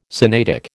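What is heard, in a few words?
"Sinaitic", American English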